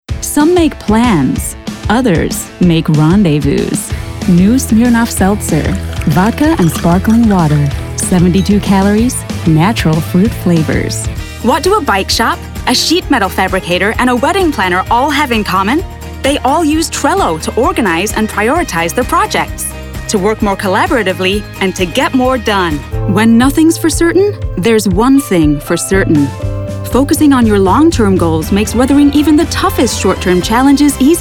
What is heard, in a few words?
Commercial American English